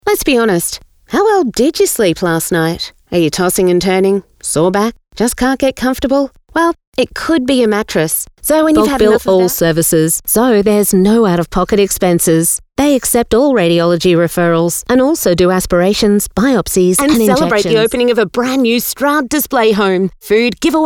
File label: Soft Sell